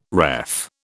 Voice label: "ref", British